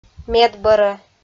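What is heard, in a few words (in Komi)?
"медбӧрӧ", Komi-Zyrian, female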